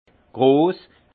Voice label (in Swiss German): "gross", alsace; vin; grand